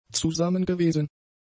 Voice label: "zusammengewesen", German; adjectives

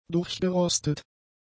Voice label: "durchgerostet", German; adjectives

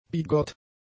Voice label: "bigott", German; adjectives

